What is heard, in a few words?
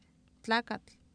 tlaakatl, Oapan minimal pairs female; Oapan minimal pairs 1998